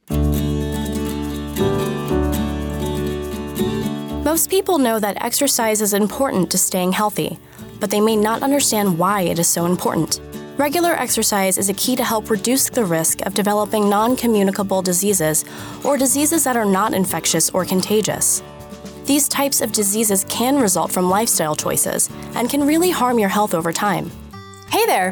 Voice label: Explainer Voiceover; Reel